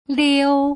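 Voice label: "liu", Putonghua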